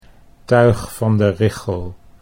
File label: "tuig van de richel", Dutch